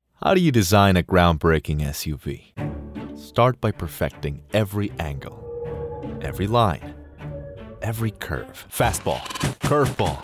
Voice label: COMMERCIAL